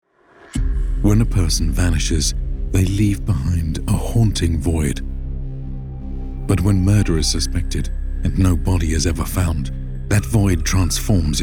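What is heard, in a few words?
Narration, British Male Voiceover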